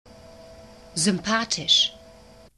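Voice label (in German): "sympathisch" German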